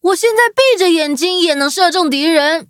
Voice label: upgrade, zh-hant